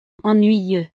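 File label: "ennuyeux", French; estados animo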